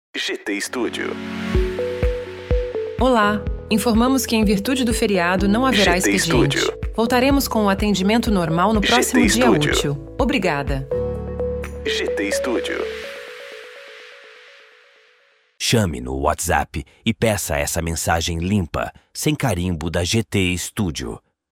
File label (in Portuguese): mensagem de feriado para ura